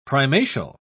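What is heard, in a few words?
"primatial", British English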